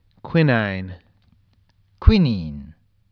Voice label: "QUININE", Pronunciation